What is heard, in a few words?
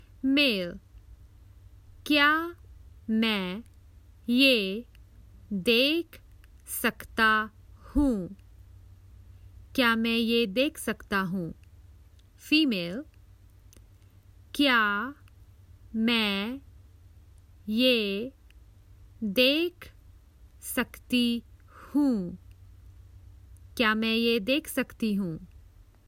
May I just look, Common Hindi Phrases for travel